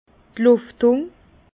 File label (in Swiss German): "luftung", Bas Rhin; vin; aération